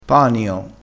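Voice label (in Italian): "panio", dialetto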